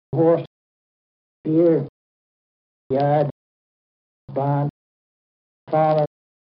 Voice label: kent, accents; warren st